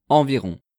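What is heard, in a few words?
"environ", French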